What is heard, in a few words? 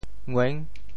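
"ngueng6", Teochew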